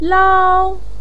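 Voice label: "lao" first tone, chinese, voice pinyin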